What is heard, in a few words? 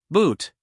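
"boot", American English, male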